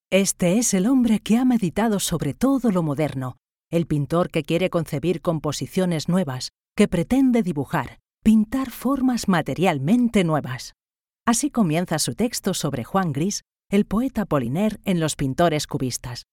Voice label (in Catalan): audioguia, locutora